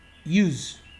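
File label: "use", Nigerian Pidgin, male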